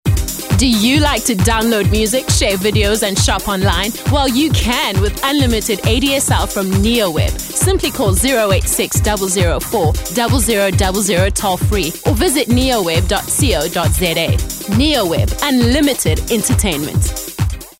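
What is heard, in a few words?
english South African female commercial; voice demos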